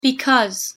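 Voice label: pronunciation "because", English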